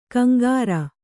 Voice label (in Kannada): "ಕಿಂಕರ", Kannada